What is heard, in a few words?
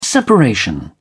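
"separation", British English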